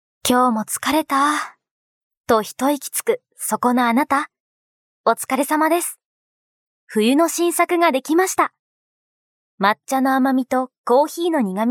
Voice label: 飲料CM風ナレーション

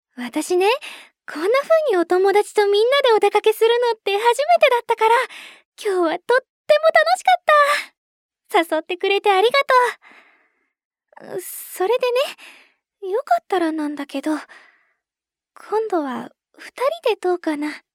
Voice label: 大人しめ大学生

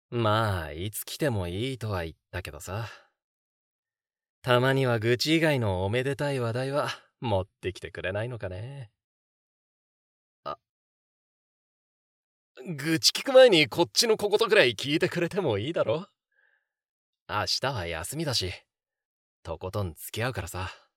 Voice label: 20代後半主人公イメージ

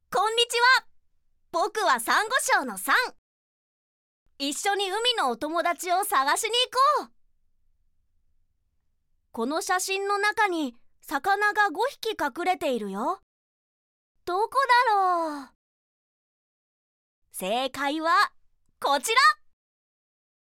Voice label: ナレーション

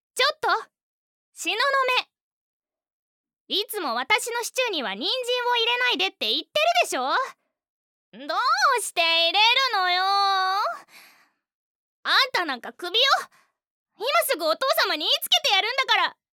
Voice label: ワガママ女の子

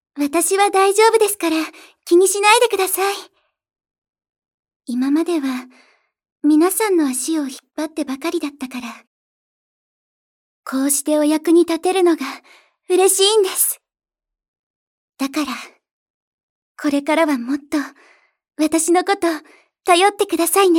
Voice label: 女性（大人しめ）

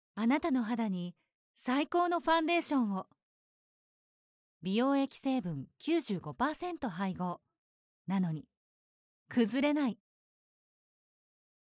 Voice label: ナレーション

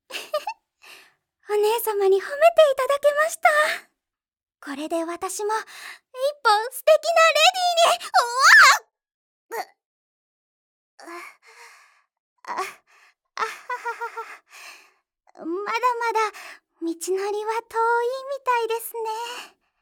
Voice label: ドジな妹

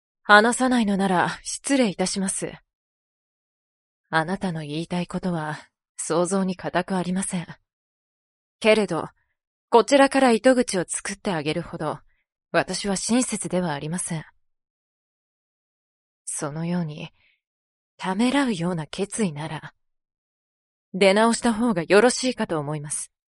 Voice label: クール女性

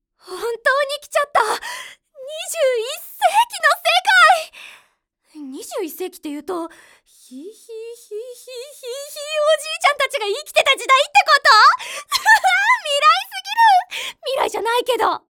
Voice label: テンション爆上がり女子

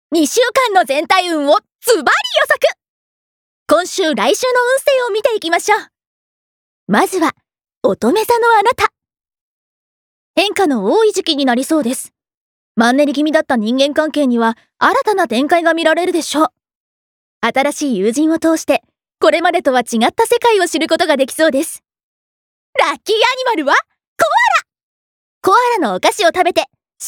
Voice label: ナレーション

